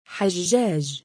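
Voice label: "7ajjaaj" male, Arabic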